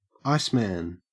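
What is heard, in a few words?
"iceman", Australian English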